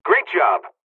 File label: dlg, hungarian; dialogs